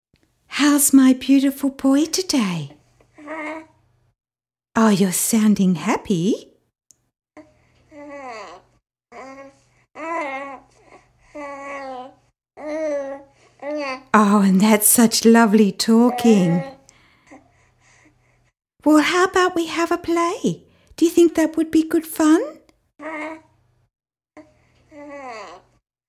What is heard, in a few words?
sensitive talking